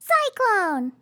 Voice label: cyclone, RPG Voice; RPG Voice Starter Pack